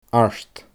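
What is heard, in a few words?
"Art", Scottish Gaelic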